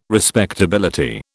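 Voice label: "respectability", British English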